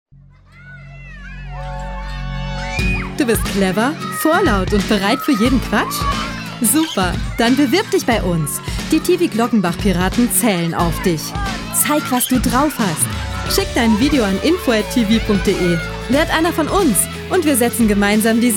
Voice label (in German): Werbung; Tivi